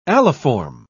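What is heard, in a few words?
"aliform", British English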